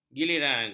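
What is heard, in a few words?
"giliran", Malay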